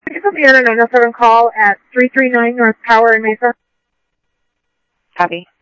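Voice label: Simulcast police department, scanner audio trunk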